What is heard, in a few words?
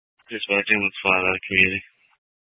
Grand Junction Fire Department Dispatch